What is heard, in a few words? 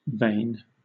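"vane", British English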